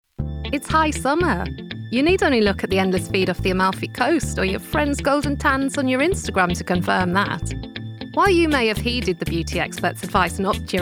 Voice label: Narration

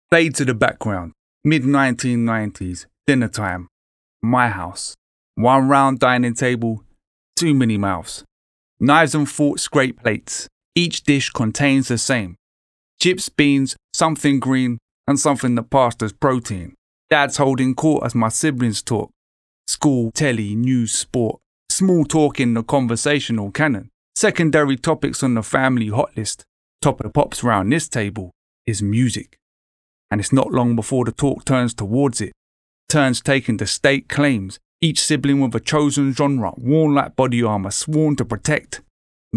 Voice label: Spoken word

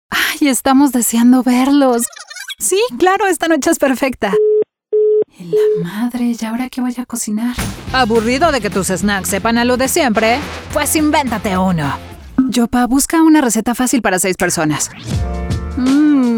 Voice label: Spanish Voice

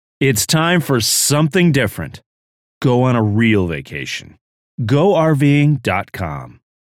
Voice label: Home Studio Sample

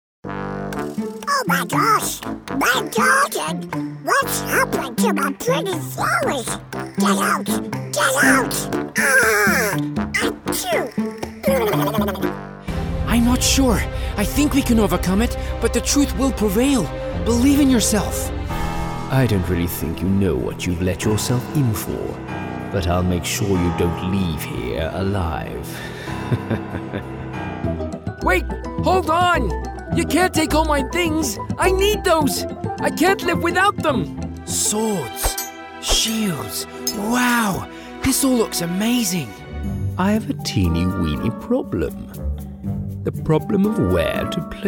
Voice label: Animation

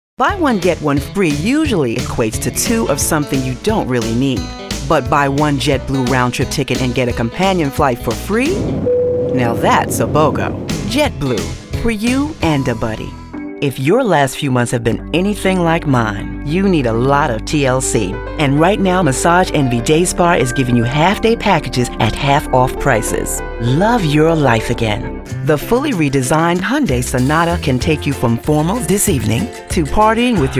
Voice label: Commercial

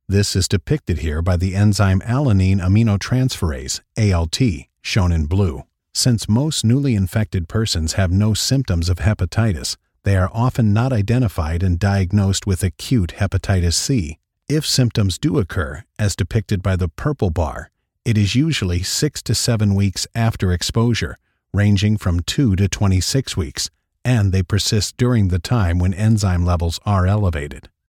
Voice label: Medical Training Explainer; CDC Hepatitis

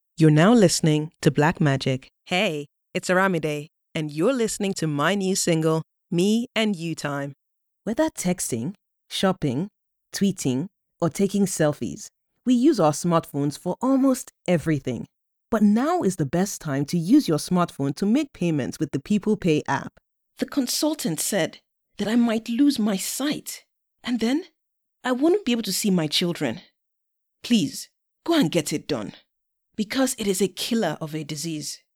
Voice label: African voice

